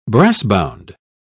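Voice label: "brassbound", British English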